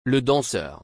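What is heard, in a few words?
"Le danseur", French; Le danseur (m.); رقاص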